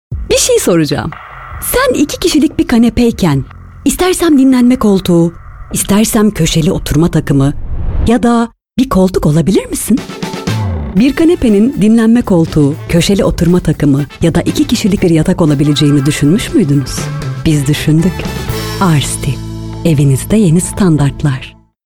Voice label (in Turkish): reklam